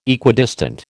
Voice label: "Equidistant", American

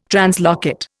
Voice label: "translocate", Indian

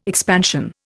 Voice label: "expansion", Indian

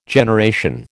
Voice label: "generation", American

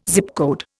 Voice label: "Zip code", Indian

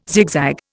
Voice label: "zigzag", Indian

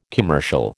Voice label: "commercial", American

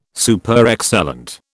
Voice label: "Superexcellent", British

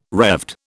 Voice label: "Revd", British

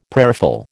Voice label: "prayerful", American

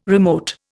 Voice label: "remote", Indian

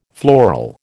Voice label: "floral", American

